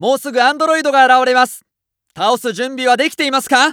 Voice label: Announce New Boss Prep, JPN; Eternal Return